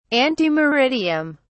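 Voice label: "ante meridiem", British English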